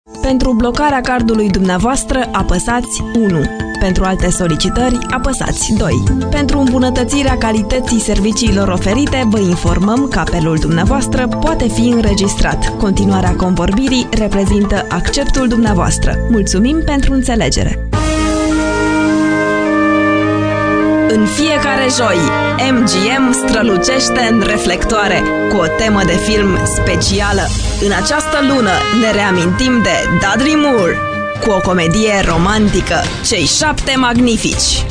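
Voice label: Romanian, foreign accent voice overs; foreign languages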